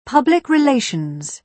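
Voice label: "public relations", British English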